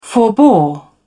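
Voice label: "forbore", en, uk British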